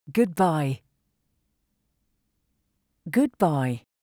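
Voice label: Goodbye, English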